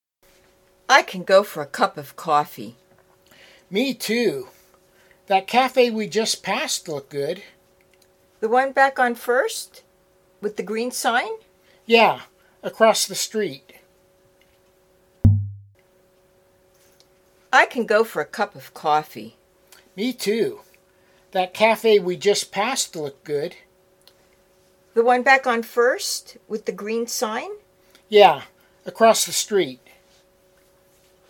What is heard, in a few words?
Natural Dialog; Lesson 1B